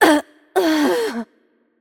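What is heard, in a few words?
German death voice; bloom minigame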